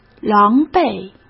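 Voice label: "狼狈", Mandarin Chinese; hsk; chinese-flashcards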